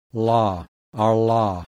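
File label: voiced retroflex lateral approximant; IPA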